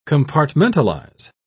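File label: "compartmentalise", British English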